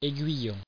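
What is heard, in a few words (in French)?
"aiguillon" French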